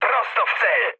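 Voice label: bomb success, mood low, Polish speech; War Thunder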